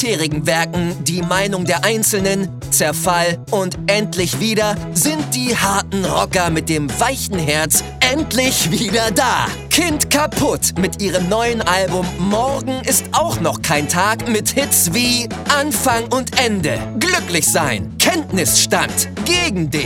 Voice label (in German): Konzert Disclaimer, Comedy Werbung, Commercial (Werbung), Comedy, German